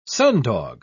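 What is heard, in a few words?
"sundog", English, uk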